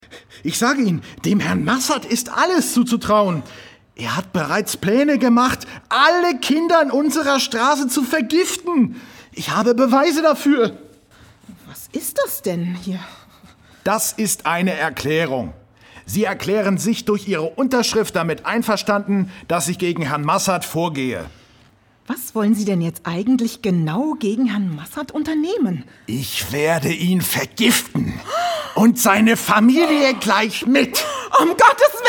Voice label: hoerspiel szene

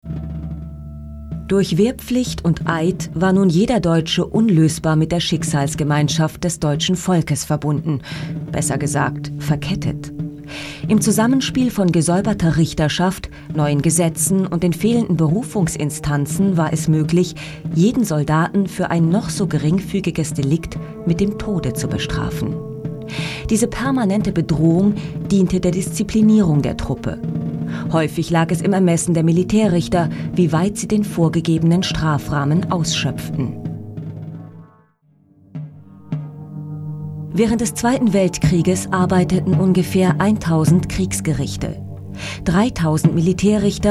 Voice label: tv doku kommentar wehrmacht

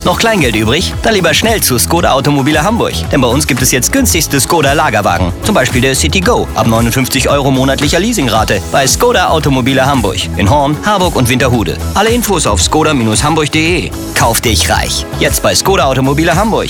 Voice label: werbung abspann; skoda

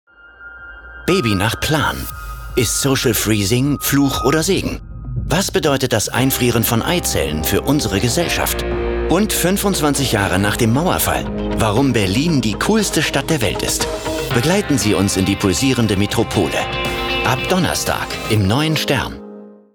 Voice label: werbung image

